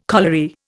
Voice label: "colliery", Indian

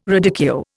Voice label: "radicchio", Indian

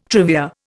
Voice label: "trivia", Indian

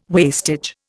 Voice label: "wastage", Indian

